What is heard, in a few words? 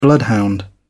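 "bloodhound", British English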